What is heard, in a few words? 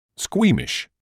"squeamish", English